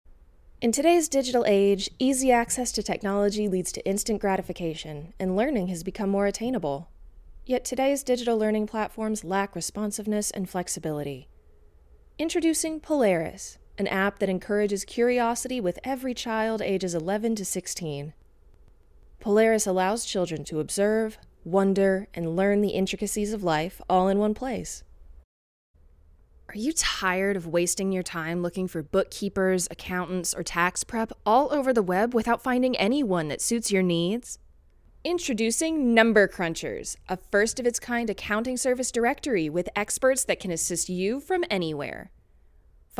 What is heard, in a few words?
外籍 Commercial Voice Sample